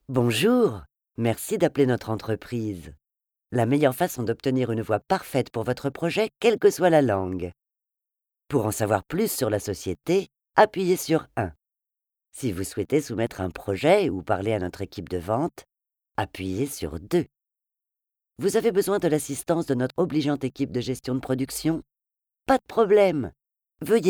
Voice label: Audiotel; VoiceBunny

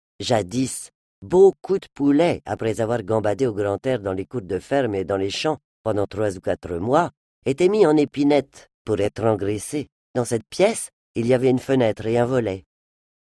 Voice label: accent R roulé; TEMPS DES GLORIEUSES

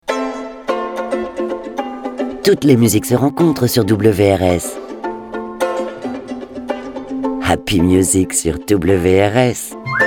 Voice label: Radio Liner; HAPPY MUSIC court

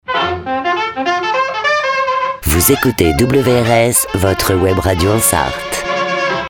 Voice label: Radio Jingle Web radio; Sarthe

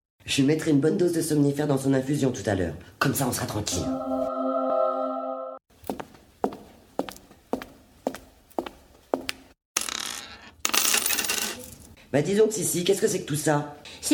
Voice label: Fiction radiophonique; Maitres mystere

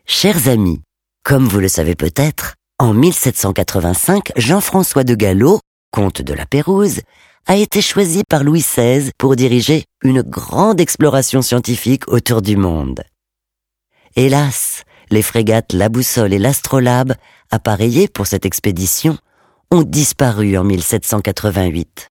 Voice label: Audioguide; Terra Botanica; Laperouse

